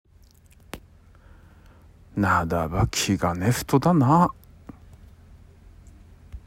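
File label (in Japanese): 津軽方言 例文, なだば きがね ふと だ な; （あなたは気が強い人だな）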